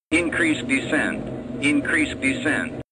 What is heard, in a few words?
Male Increase Descent